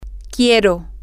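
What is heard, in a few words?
I want, Spanish, female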